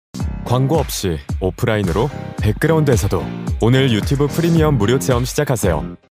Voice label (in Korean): 광고; 유튜브 프리미엄